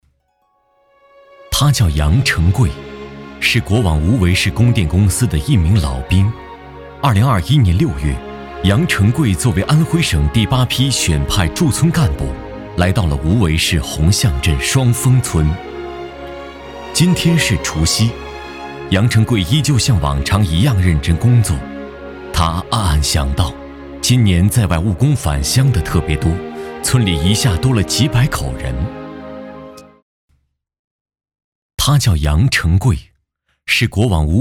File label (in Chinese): 男 专题 人物